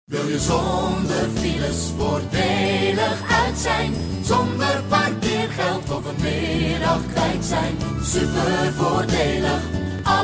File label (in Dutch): Reclamespot vrouw